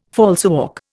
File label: "falsework", Indian